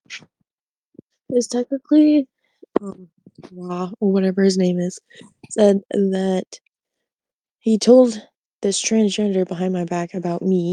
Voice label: voice message